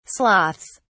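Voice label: "sloths", British English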